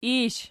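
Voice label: "ish" nominative, Archi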